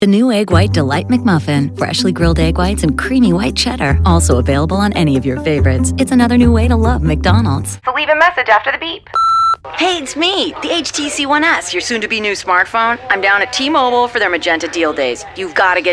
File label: Commercials